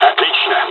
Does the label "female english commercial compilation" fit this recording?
No